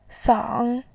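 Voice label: "sang", Mandarin Chinese, third tone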